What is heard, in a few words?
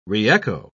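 "re-echo", British English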